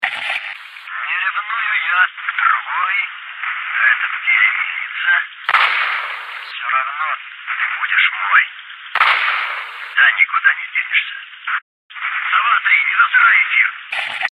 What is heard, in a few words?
radio voice